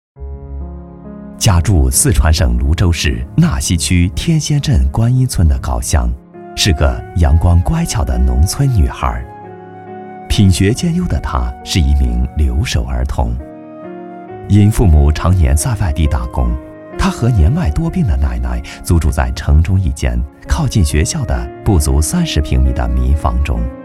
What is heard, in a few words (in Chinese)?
男 专题 温馨时尚 人物类